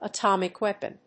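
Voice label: "atomic weapon", English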